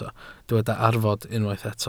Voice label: "arfod", Welsh